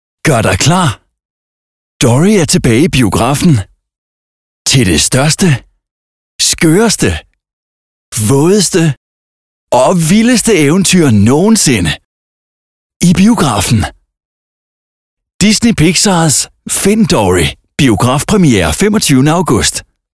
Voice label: KIDS